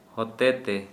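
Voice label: "by", Santali, male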